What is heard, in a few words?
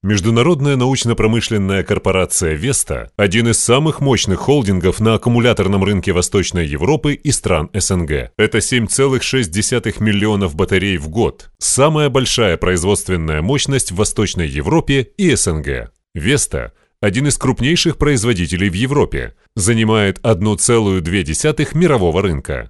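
Russian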